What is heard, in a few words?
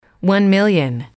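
one million, female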